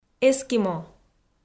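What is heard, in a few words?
"эскимо", Komi, female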